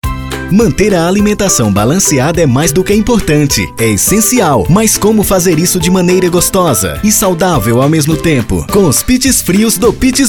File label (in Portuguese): VOZ IMPACTO GRAVE vinheta para hamburgueria sanduicheria vinhetas spot chamada comercial; propaganda